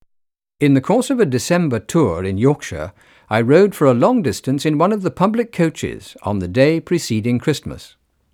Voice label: male speech english; OmniPower4296